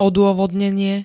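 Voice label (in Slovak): "odôvodnenie", Slovak; syn. zdôvodnenie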